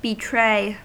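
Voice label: "betray", American English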